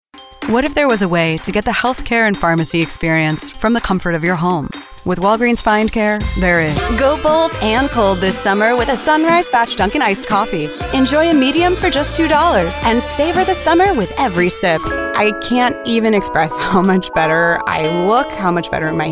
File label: female commercial